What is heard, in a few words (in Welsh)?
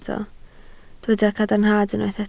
"cadarnhad" Welsh